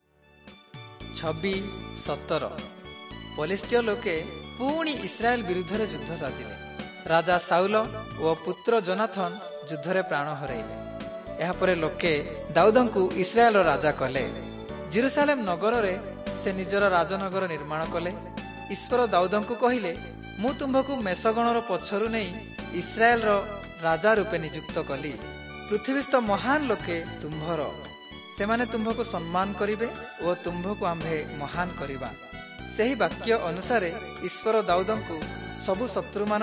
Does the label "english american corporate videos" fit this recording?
No